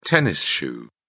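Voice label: "tennis shoe", British English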